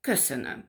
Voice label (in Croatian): mađarski; POP UP Zagreb